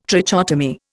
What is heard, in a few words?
"trichotomy", Indian